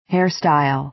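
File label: "hairstyle", American English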